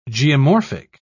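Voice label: "geomorphic", British English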